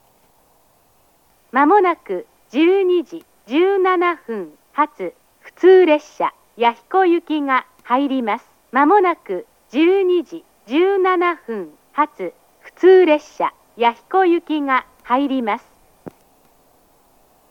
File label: local yahiko, tsubamesanjo; 燕三条駅; 接近放送; 普通; 弥彦; 上り列車です。; 12時17分発、普通列車 弥彦行です。; station; jr-east